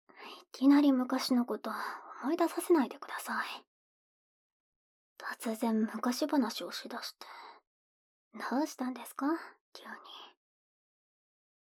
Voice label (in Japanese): ピロートーク